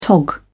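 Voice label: "tog", British English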